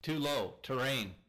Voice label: gpws too low terrain